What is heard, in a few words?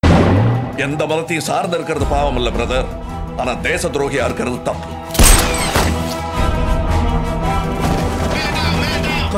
dialogue tone; tamil; vishwaroopam 2; kamal haasan